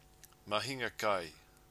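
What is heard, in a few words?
"mahinga kai", Maori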